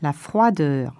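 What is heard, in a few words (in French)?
"froideur", French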